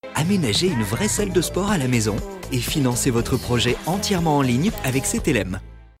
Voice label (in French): PUB WEB Bumper; Cetelem